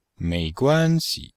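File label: "mei2 guan1 xi5", Mandarin Chinese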